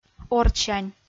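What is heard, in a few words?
"ордсянь", Komi-Zyrian, female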